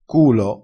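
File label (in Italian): "culo", dialettale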